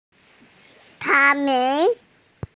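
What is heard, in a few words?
"cao3mei2", Mandarin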